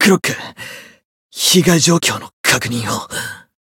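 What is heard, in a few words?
win barely, Japanese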